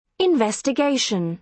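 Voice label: "investigation", British English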